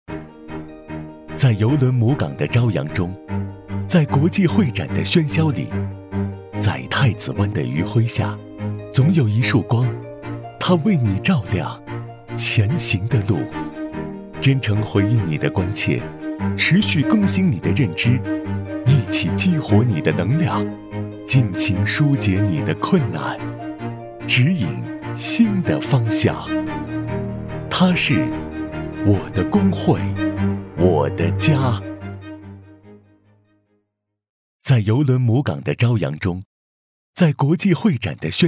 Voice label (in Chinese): 男 旁白; 我的工会